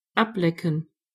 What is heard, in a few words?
lick, de; gcompris